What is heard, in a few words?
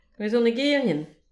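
"brezhonegerien", Breton; petit precis de prononciation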